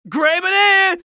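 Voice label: scout taunt flip fun, male voice; tf2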